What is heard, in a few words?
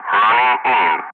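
"running in", English; Ka-50; Wingman; Messages; Speech; DCS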